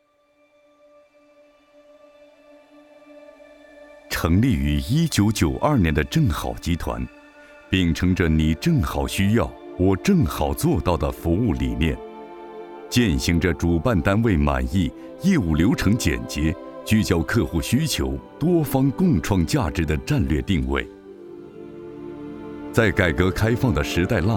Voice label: 专题 企业 成熟, 男国; 正好集团

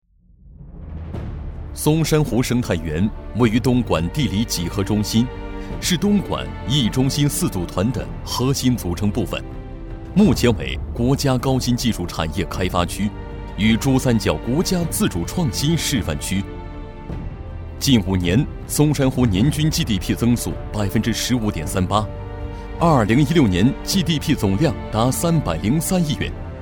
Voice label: 专题 汇报 沉稳, 男国; 创建省级法治税务基地

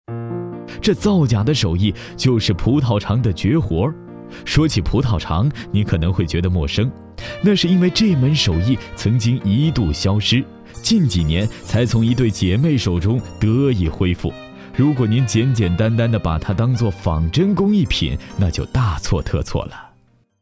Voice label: 男国 其他 栏目; 葡萄糖

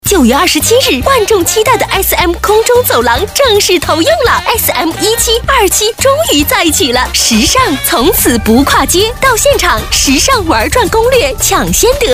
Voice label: 女 广告 促销 激情; SM空中走廊

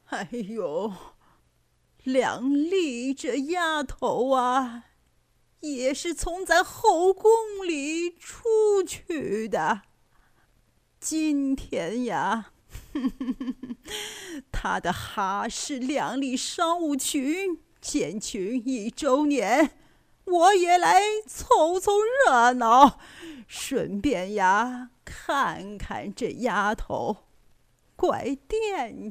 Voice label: 模仿年迈的; 斯琴高娃

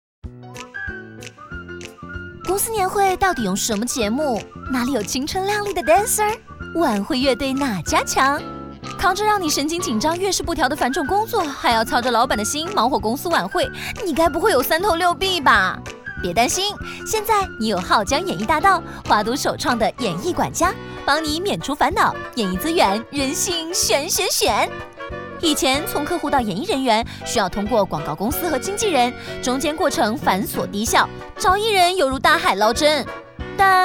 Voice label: 宣传片 台湾腔欢快; 病毒

